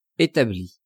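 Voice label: "etabli", French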